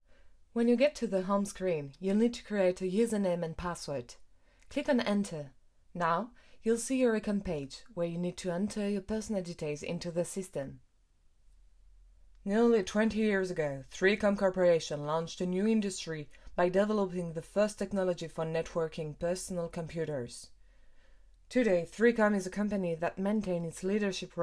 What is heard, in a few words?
CORPORATE; english